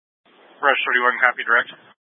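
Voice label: Incident Tactical 2, Scanner audio recording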